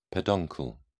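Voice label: "peduncle", English, British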